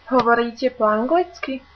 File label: do you speak english, slovak